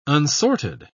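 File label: "unsorted", British English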